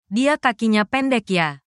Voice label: indonesian phrases